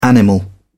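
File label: "animal", British English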